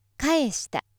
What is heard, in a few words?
female